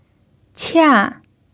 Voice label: "qia4", chinese pinyin